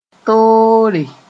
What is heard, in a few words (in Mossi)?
"toore", moore; mortar